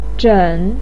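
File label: "zhen3", Mandarin pinyin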